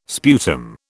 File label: "sputum", British